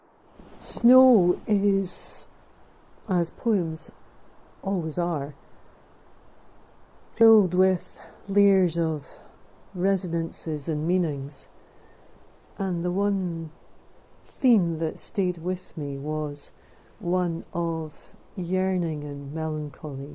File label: audio voiceover